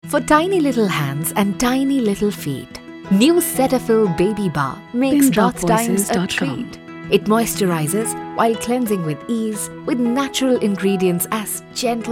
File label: English